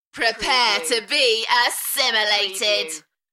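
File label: British female Prepare to be assimilated Enthusiastic; beanstalk audio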